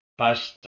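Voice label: "ph2st", Proto-Indo-European; eastern origins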